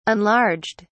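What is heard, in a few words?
"enlarged", British English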